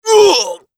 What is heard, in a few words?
macho damage